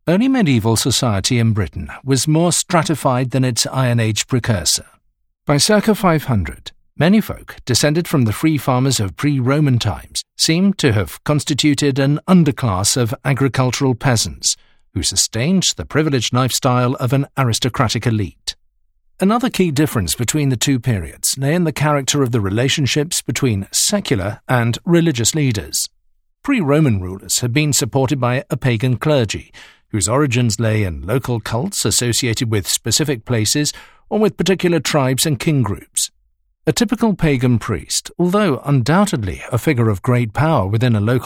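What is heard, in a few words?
English UK, Audiobook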